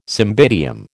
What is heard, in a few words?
"cymbidium", American